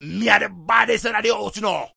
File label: rasta devil talk, Rastafarian